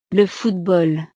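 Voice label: "le football", French; deportes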